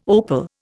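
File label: "opal", Indian English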